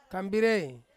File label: "kambirei", Ansus; hole